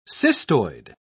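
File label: "cystoid", British English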